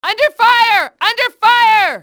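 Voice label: under fire, english, female; XBOX; enemy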